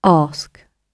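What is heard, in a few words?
"ask", British English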